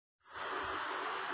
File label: Voice Note